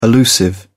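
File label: "allusive", British English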